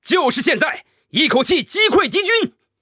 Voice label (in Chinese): 男